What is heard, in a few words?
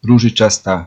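pink, Serbian; gcompris-data; colors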